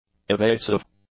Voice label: "evasive", English; adjectives